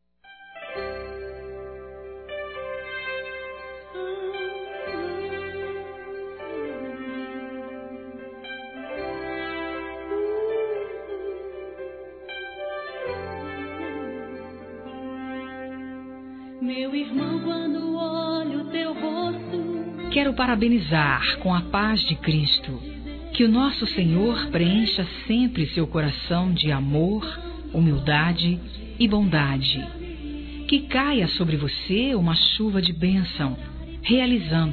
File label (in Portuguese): NEUTRO F, Telemensagens Aniversários